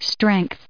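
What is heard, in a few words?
"strength", US English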